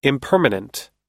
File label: "impermanent", US